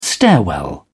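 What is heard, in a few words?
"stairwell", British English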